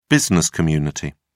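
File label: "business community", British English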